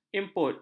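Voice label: "input", Malay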